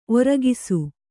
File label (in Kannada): "ಒರ್ಕೈಸು", Kannada